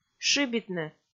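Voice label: "шыбитны", Komi, female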